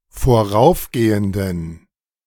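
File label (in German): "voraufgehenden", German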